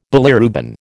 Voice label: "bilirubin", American